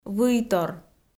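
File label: "выйтор", Komi, female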